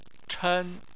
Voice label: "chen1", chinese